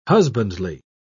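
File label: "husbandly", British English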